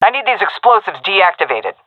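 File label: cover defuse, female; vo; Counter Strike Model Pack; CS:GO; seal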